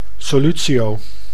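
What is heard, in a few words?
"solutio", Dutch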